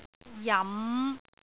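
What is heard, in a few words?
"jam5", Cantonese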